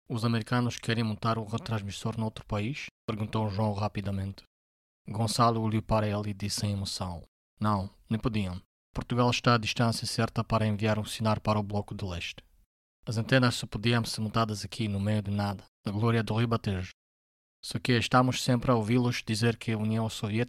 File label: Portuguese audio narration; voice reel